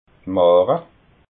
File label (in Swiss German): "mahra", alsace